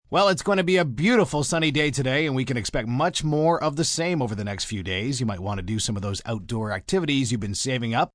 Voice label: Weather Sun M, male weather report, beautiful, sunny day, news; Broadcast, weather; (human voice speaking)